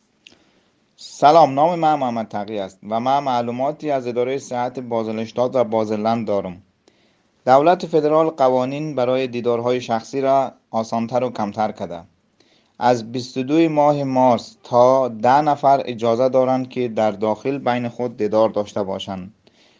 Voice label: dari; kantonsarztlicher dienst; medizinische dienste; informationen in verschiedenen sprachen